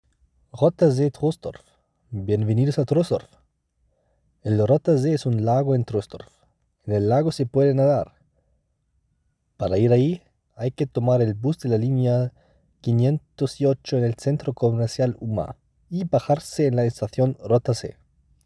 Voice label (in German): Spanisch; Rotter See Troisdorf